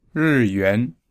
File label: "ri yuan", Mandarin